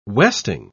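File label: "westing", British English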